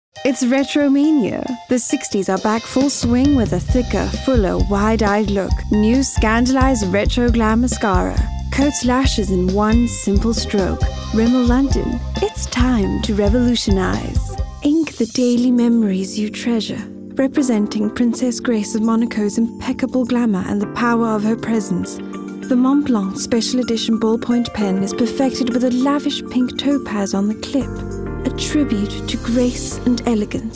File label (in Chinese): 英語女聲 Commercials